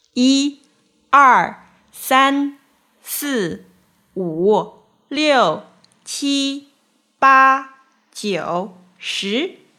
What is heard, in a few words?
Numbers ch